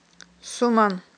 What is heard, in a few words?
"суман", Aghul